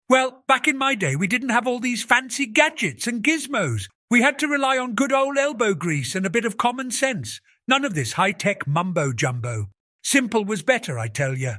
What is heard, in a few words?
Old Man Male